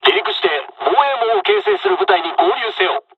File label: takeoff, dialogs japanese; midway; War Thunder